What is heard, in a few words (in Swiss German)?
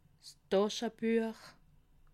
"taschebuech", alsace